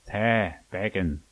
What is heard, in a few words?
yes a little, manx